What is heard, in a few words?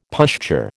"pushchair", American